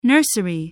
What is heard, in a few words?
"nursery", voice, spelling